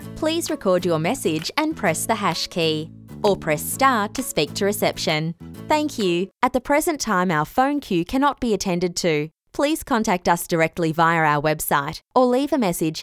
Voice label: On Hold